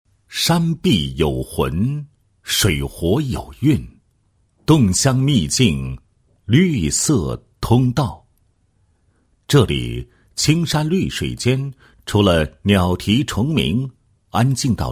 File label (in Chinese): 男 宣传广告 黑老虎果实宣传; 云南侗族